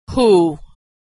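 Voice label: "who", American English; vowel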